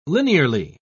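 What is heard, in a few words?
"linearly", British English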